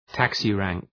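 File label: "taxi rank", English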